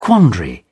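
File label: "quandary", English, British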